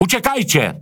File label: Speech Quick Commands Back Ship, PL; World Of Warships